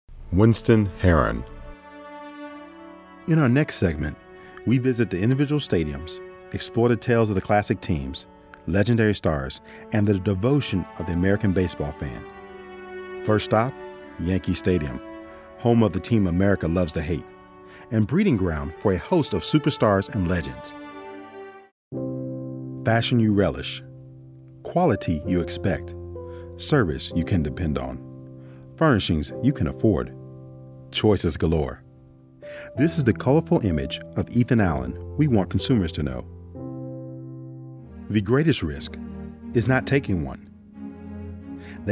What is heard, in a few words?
Narration